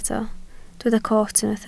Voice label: "coot", Welsh